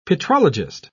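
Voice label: "petrologist", English, British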